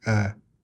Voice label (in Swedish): "ä", ljudbank